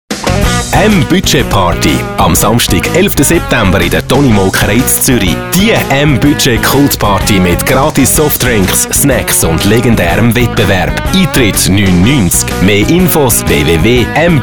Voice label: PARTY SPOT; M-BUDGET